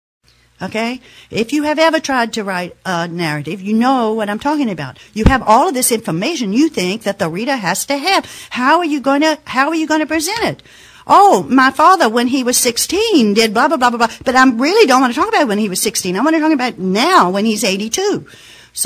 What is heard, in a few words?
lecture